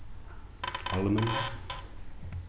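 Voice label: "almond", British English, male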